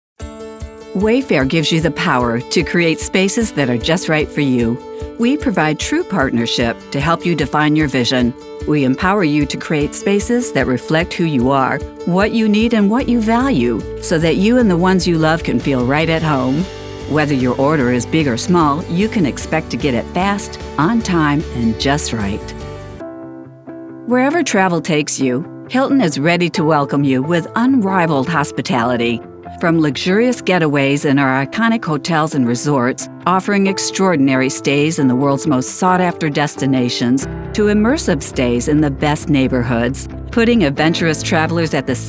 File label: Corporate